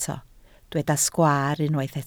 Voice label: "sgwaar", Welsh